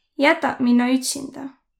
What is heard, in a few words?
leave me alone, voro